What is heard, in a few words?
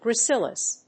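"gracilis", English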